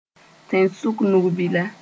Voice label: "tẽsʋk-nug-bila", Moore

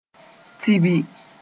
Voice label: "tibi", Moore; to get ready

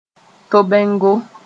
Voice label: "tobengo", moore

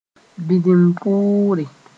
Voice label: "bĩndinpuure", Mooré

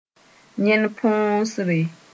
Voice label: "yẽn-põosre", moore

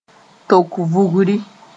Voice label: "tok-vugri", Mooré

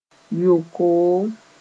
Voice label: "yo-koom", Mooré; sperm

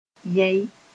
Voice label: "yɛẽ", moore

